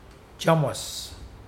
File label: "stingy", Mokilese